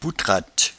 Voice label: "poutratte", patois jura

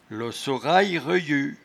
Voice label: "losorayereyut", patois jura

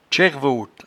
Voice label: "tchairvoite", patois jura

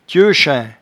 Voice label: "tieuchain", patois jura

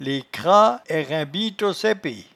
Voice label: "lescras", patois jura

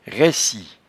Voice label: "raissi", patois jura

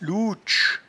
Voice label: "louetches", patois jura

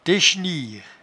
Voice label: "techeniere", patois jura

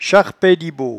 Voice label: "charpaiedibos", patois jura

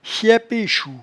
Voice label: "chaipechou", patois jura

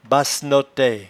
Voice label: "bassenotaie", patois jura

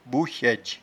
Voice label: "bouchaidge", patois jura

